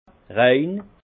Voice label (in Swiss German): "rein", Alsace; vin; pur